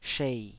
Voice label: "shei3", taiwanese

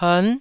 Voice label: "pern9", taiwanese

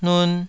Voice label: "nun2", Taiwanese

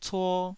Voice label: "cuo2", Taiwanese syllable

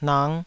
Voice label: "nang5", taiwanese

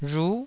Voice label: "rhu9", taiwanese

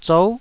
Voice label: "zou9", taiwanese

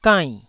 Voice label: "gainn4", Taiwanese

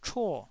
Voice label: "chuo4", taiwanese

